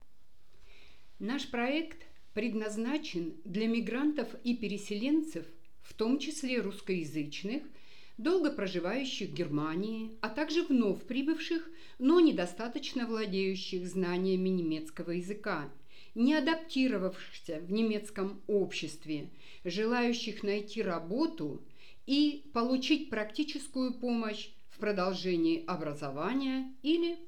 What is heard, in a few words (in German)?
Russisch Ansprache